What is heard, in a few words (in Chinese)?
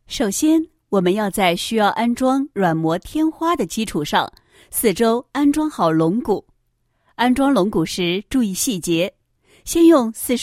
女, 解说; 软膜天花安装步骤与细节